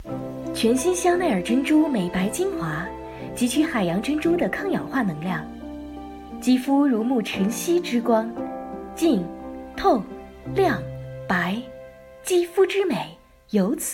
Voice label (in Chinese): 广告 美白精华; 香奈儿